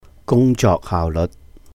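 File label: "gūng jok haauh léut", Cantonese; working efficiency; 工作效率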